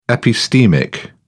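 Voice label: "epistemic", British English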